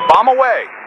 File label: Radio pilot Launch Pickle